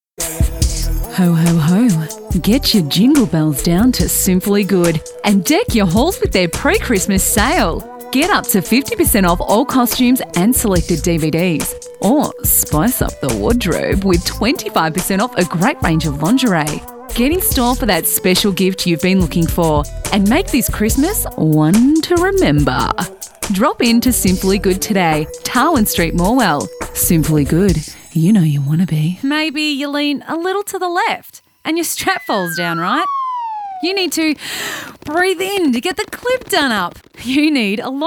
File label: Cheeky Sexy VOs